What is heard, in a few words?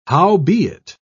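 "howbeit", British English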